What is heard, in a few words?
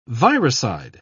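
"virucide", English, British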